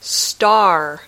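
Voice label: "star", English word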